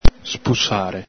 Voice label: "spusare", Veneto

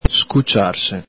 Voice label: "scuciarse", Veneto

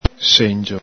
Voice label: "sengio", Veneto

